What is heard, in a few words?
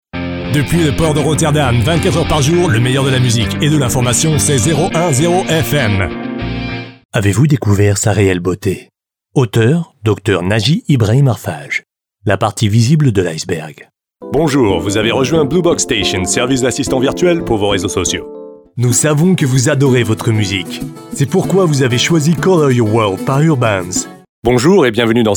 voice over French; demo